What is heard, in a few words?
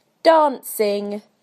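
"dancing", British English; PictureDictionary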